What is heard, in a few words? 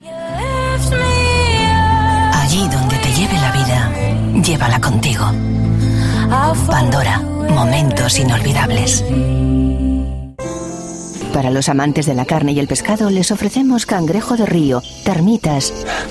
commercials audioguide